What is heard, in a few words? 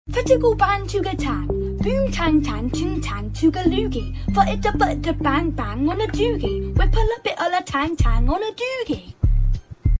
baltimore rap; gigglesaurus